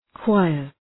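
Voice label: "choir", English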